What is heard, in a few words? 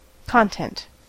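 "content", English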